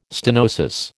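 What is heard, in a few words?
"stenosis", American English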